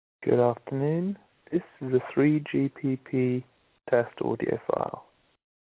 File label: fake voicemail; Android; variablespeed